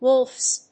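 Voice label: "Wolfs", English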